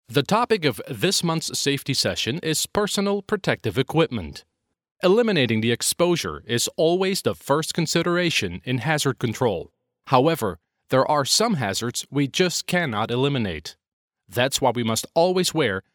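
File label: Flemish Belgium, male, voice over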